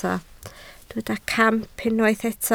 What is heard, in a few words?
"camp", Welsh